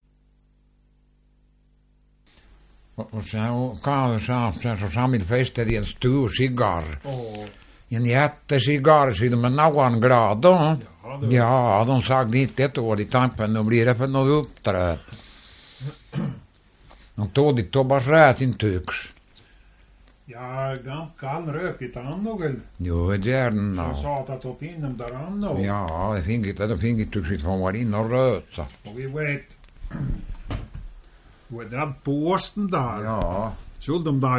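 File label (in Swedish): Älvdalen Dalarna, dialekter; Folkminnen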